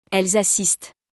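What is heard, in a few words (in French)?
"elles assistent", French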